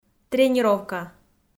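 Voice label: "тренировка", Komi, female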